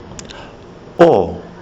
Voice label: o as in koro, kiwi english

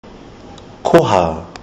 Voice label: "koha", kiwi english

